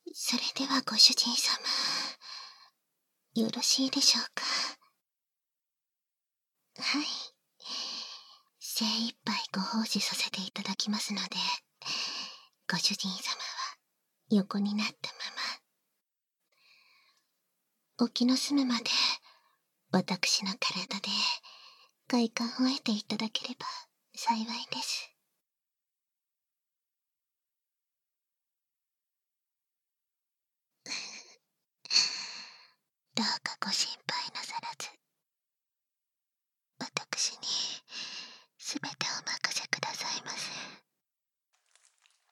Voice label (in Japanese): 夜伽（バイノーラルマイク収録 効果音あり）